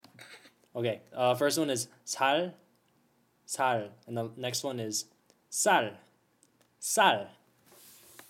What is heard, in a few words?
faucalized